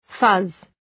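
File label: "fuzz", English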